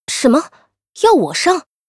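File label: yes attack, Chinese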